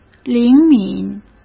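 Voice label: "灵敏", Mandarin Chinese; hsk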